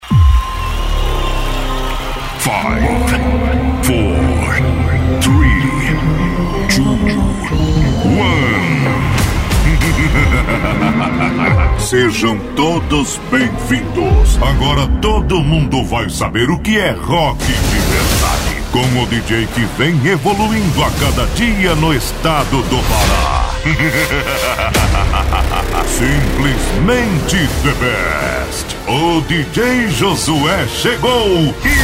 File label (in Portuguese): LOCUTOR